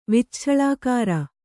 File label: "ವಿಚ್ಛಳಾಕಾರ", Kannada